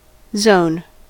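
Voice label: "zone", American English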